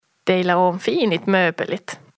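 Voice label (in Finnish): "Teila oon fiinit moopelit", Finnish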